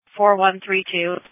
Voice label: Sheriff Dispatch; sdr